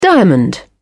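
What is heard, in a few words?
"diamond", British English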